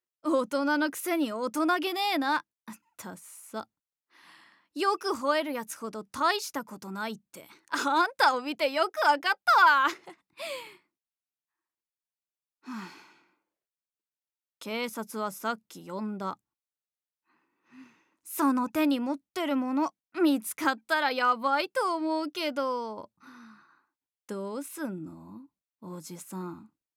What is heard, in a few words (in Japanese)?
悪ガキ少年